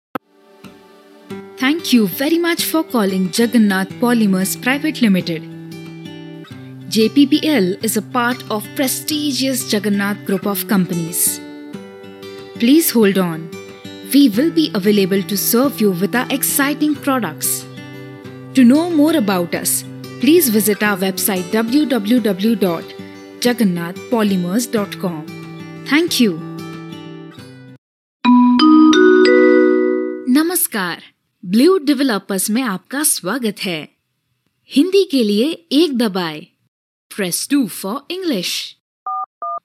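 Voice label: IVR ENGLISH HINDI voice over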